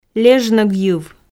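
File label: "лежногйыв", Komi, female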